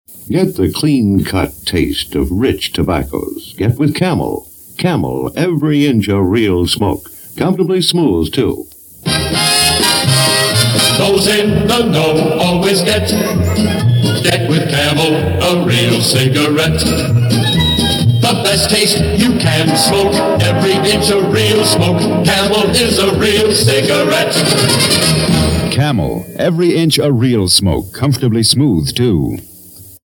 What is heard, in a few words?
Cigarettes Radio Commercial; Camel; R. J. Reynolds Tobacco Co.